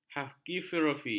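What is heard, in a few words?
"hagiografi", Malay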